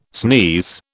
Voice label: "sneeze", British

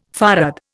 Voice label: "farad", Indian

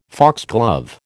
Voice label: "foxglove", American

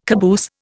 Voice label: "caboose", Indian

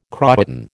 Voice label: "croÖton", American

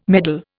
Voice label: "meddle", Indian

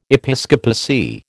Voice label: "episcopacy", American

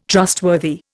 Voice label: "trustworthy", Indian

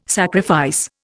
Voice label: "sacrifice", Indian

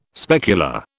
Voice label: "specular", British

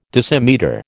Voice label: "decimetre", American

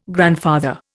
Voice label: "grandfather", Indian

